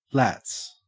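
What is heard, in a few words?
"lats", Australian English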